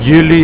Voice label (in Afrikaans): "julie", Afrikaans